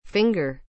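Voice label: "finger", English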